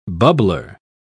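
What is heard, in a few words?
"bubbler", English, British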